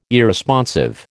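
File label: "Irresponsive", American English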